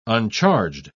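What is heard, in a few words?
"uncharged", British English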